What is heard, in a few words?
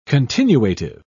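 "continuative", British English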